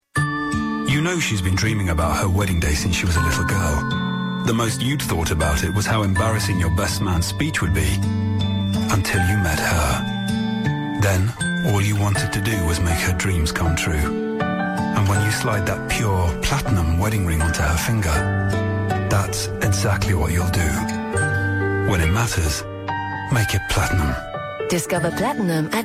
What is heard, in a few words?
Platinum jewellery advert; John Bull